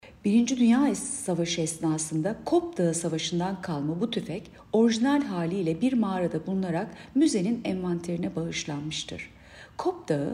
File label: turkce